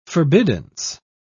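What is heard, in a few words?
"forbiddance", en, uk British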